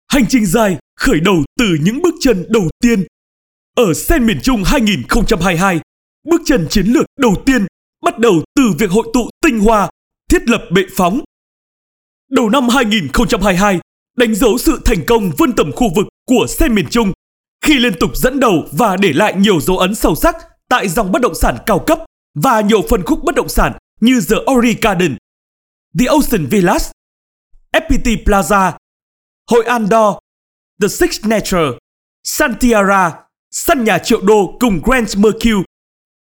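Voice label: Vietnamese North Male; Miền Trung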